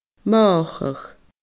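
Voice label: "mothachadh", Scottish Gaelic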